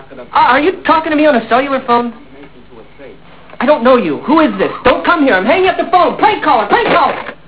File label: prank call; Mobile; Motorola MPX200